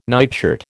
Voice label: "nightshirt", American